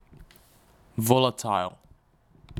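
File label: "volatile", English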